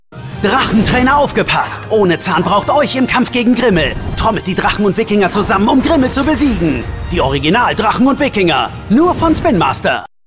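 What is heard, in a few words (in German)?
Spots OFF, Sprecher, Männer; Spinmaster; Drachen&Wikinger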